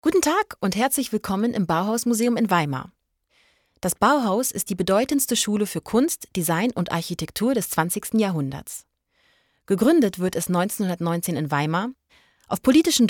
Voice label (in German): DE F Audioguide